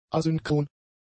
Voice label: "asynchron", German; adjectives

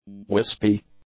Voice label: "wispy", english; adjectives

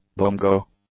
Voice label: "bongo", English; nouns

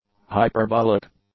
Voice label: "hyperbolic", English; adjectives

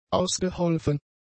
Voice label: "ausgeholfen", German; adjectives

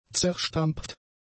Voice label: "zerstampft", German; adjectives

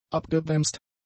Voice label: "abgebremst", German; adjectives

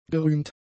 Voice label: "gerühmt", German; adjectives

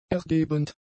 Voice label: "ergebend", German; adjectives

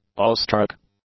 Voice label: "awestruck", English; adjectives

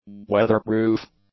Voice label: "weatherproof", English; adjectives

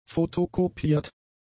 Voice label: "fotokopiert", German; adjectives

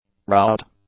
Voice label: "rout", English; nouns

